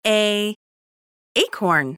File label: babys sound audio ACORN